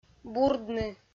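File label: "бурдны", Komi-Zyrian, female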